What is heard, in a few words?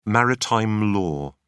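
"maritime law", English, British English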